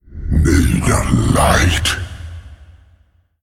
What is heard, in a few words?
Male Faceless Play